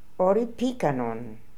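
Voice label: "Ori Pikan On", pronunciations; Fourth Way; Beelzebub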